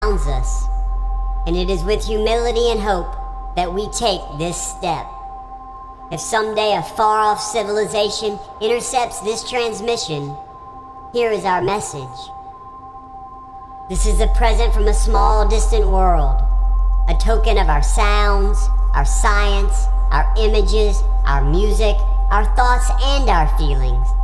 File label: Narration